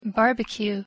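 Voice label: "barbecue", English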